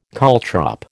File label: "caltrop", American